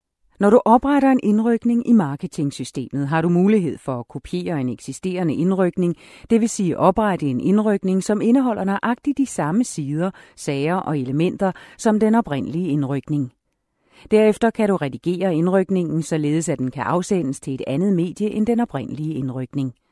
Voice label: Danish F elearning; voice over

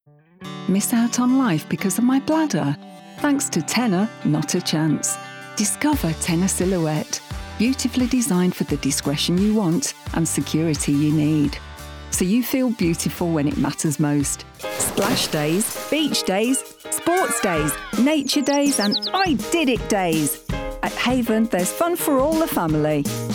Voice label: English F, accents of england

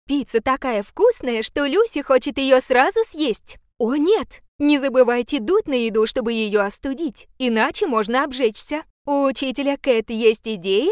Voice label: Russian F Character

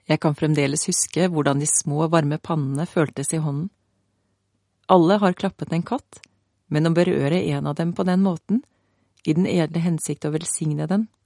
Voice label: Norwegian F

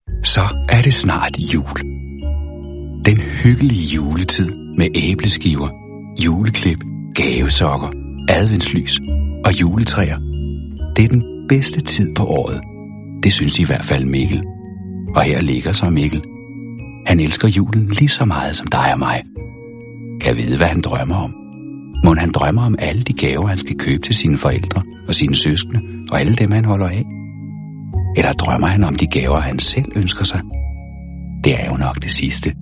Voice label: Danish M Soft Narration; voice over

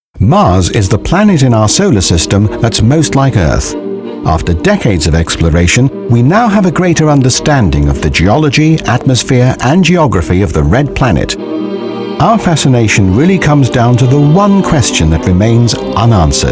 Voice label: English, M, Commercial; advertising-voice-over

